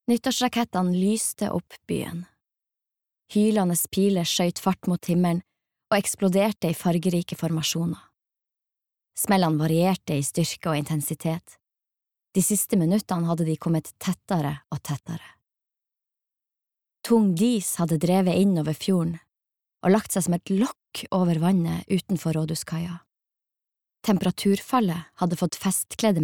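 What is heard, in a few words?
Norwegian audiobook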